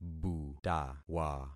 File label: "butawa", concat syllables; Affixing experiment